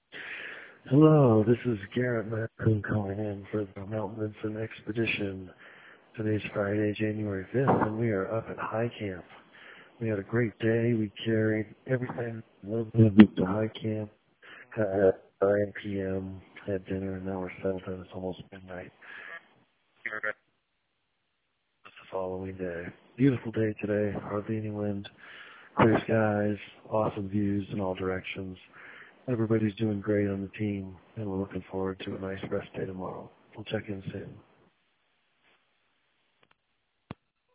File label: voicemail